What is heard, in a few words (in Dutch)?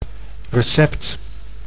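"recept", Dutch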